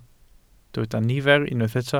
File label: "nifer", Welsh